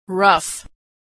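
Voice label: "rough", American English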